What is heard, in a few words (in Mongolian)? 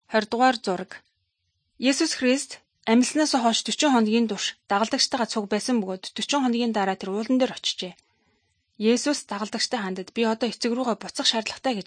good news mongolian